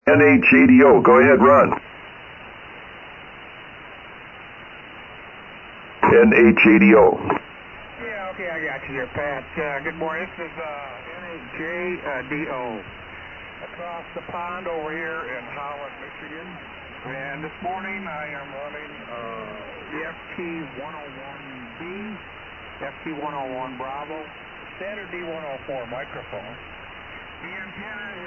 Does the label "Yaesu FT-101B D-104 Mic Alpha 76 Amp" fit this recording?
Yes